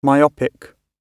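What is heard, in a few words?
"myopic", British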